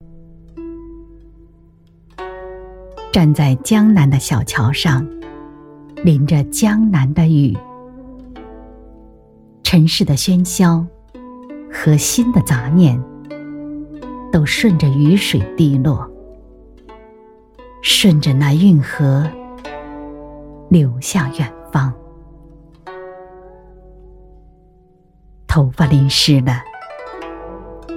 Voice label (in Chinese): 声音温润醇厚，专攻人文纪录片与专题报道，风格细腻有深度; 配音